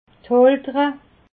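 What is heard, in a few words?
"holunder", Alsatian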